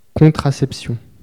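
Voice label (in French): "contraception" French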